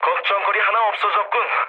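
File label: Korean player killed enemy mood low; speech; War Thunder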